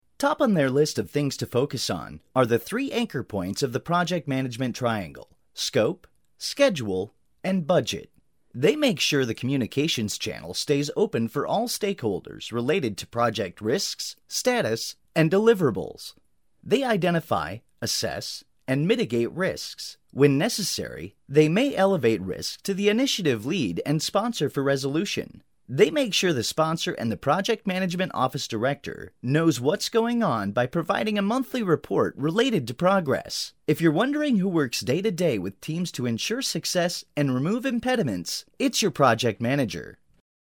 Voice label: e-learning, corporate narration

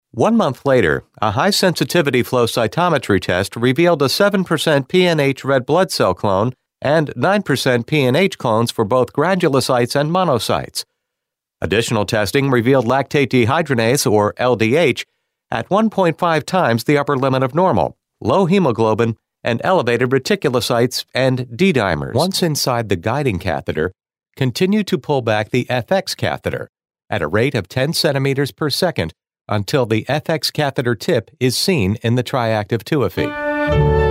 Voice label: eLearning Medical Male

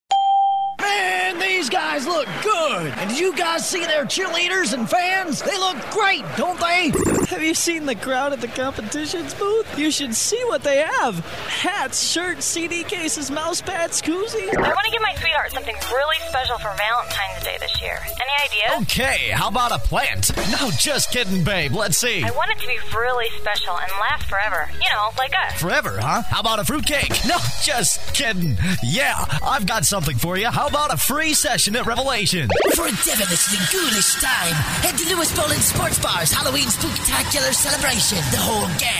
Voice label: Characters Male, Commercial Voiceover, Character

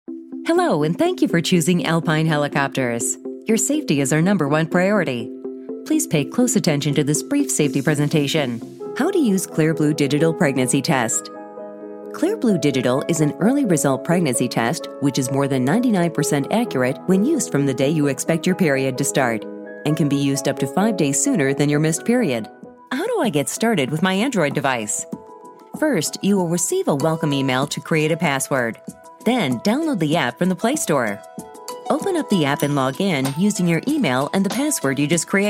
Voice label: eLearning Female; Narration, eLearning

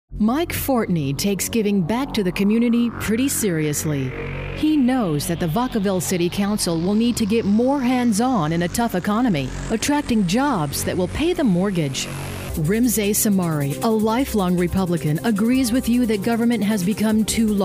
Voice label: Political Female

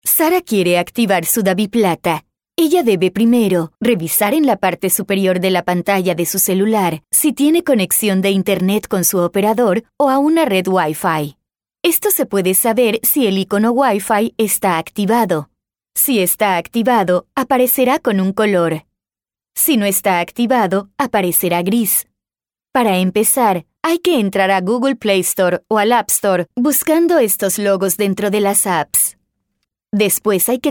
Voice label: eLearning Spanish Female; Narration, eLearning, International Voiceover, Spanish (Latin and Castillian)

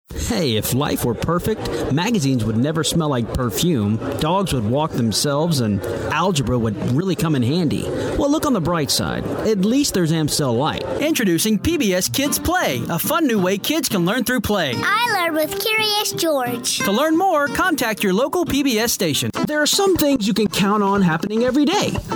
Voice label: Commercial Male, Commercial Voiceover; TV and Radio, Auto Dealer, Promo, Radio Imaging

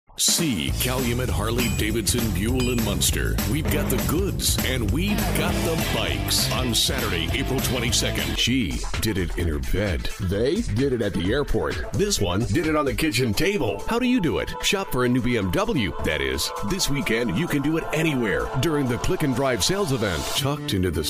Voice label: Commercial Male

